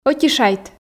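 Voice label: Komi, female; ӧти шайт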